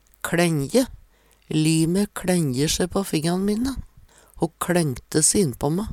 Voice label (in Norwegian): kLenje, Numedalsmål; Lime kLenjer se på fingan mine. Ho kLengte se innpå me.